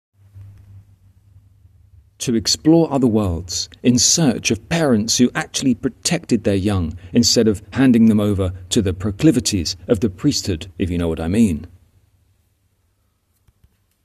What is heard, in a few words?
voiceover